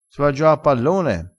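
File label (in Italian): "pallone", dialettale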